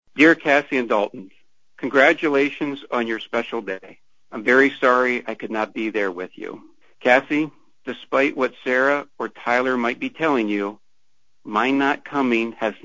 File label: recorded phone call